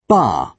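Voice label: "bar", British English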